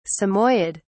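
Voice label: "samoyed", British English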